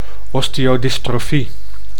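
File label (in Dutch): "osteodystrofie", Dutch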